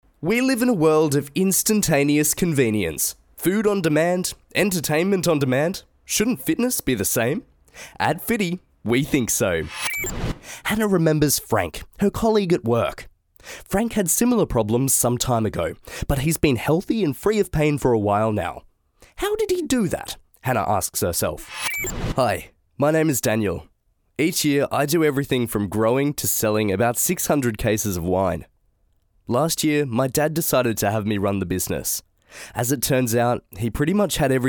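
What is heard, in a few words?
Australian English; GoLocalise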